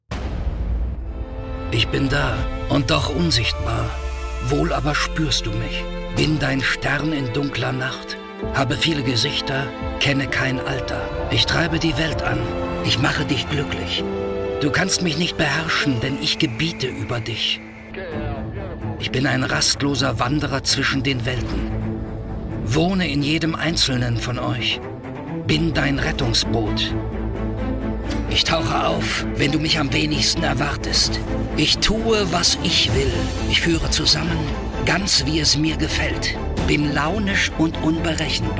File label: german corporate videos